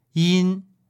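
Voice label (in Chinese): "yin", Mandarin Chinese, male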